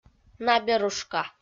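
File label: "наберушка", Komi, female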